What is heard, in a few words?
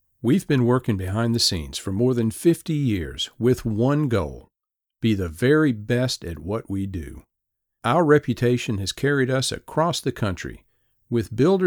Short VO for Expansion Announcement